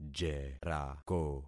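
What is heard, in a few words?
"jerako", concatenated syllables; Affixing experiment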